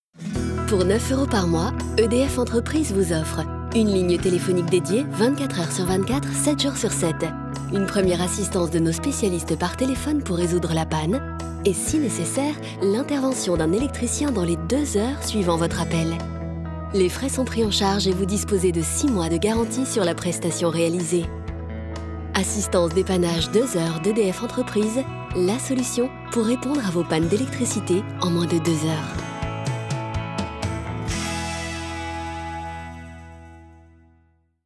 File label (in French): explicative douce; EDF